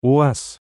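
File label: "Uaz", Russian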